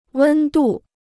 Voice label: "温度", Chinese

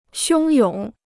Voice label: "汹涌", Chinese

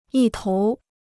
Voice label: "一头", Chinese

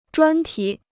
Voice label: "专题", Chinese; 专题 (zhuān tí)

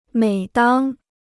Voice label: "每当", Chinese

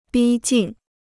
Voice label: "逼近", Chinese

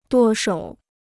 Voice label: "舵手", Chinese

